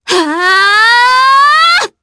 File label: Casting, jp; Frey; kingsraid